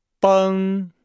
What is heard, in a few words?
"beng", Nihao